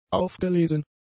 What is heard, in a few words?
"aufgelesen", German; adjectives